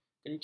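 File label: "kenceng", Malay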